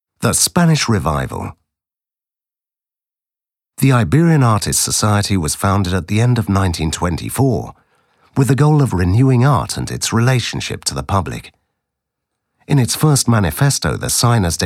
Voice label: audioguia english